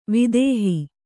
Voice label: "ವಿದೇಹ", kannada

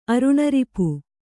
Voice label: "ಅರುಣರಿಪು", Kannada

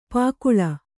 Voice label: "ಪಕಳಿ", Kannada

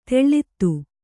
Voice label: "ತಳೆತ", Kannada

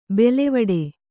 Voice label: "ಬೇಲಿವೀಡು", Kannada

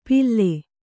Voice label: "ಪಿಲ್ಲೆ" Kannada

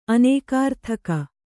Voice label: "ಅನೇಕಾರ್ಥಕ", Kannada

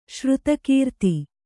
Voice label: "ಶ್ರುತಕೀರ್ತಿ", Kannada

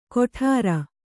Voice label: "ಗೊಟರ್", kannada

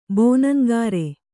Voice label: "ಬೋನಂಗಾರೆ", Kannada

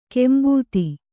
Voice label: "ಕಾಂಬೋದಿ", Kannada

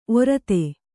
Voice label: "ಒರತೆ", kannada

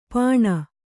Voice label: "ಪಾಣ", Kannada

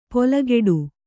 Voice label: "ಪೊಲಗೆಡು", kannada

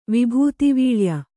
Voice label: "ವಿಭೂತಿವೀಳ್ಯ", Kannada

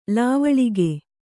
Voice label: "ಲವಳಿಗೆ", Kannada

